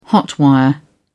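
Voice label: "hot-wire", British English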